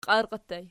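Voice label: "qiarqii" ergative plural, Archi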